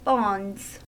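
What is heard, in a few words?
"bonds", US English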